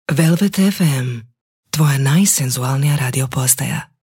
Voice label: croatian voice continuity radio

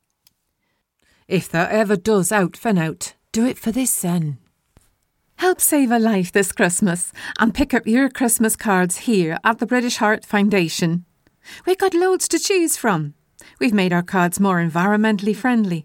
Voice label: accents

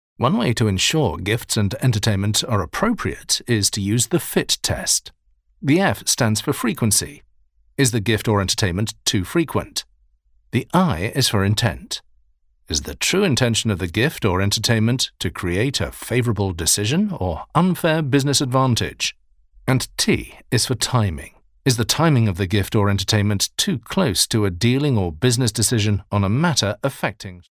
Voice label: e-learning fit test

